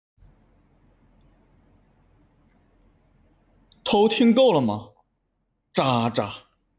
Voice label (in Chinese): 男子